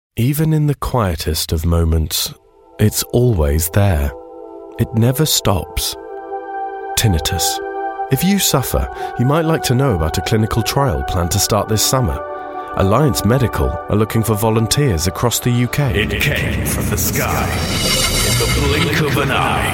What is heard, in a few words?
commercial